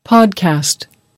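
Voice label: "podcast", English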